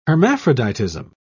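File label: "hermaphroditism", British English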